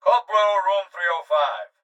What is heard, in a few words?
Hospital Pa Voice; Blastwave